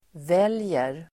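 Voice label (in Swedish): "väljer", Swedish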